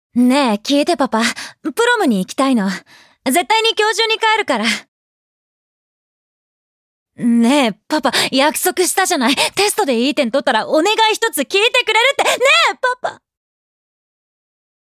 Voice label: dubbing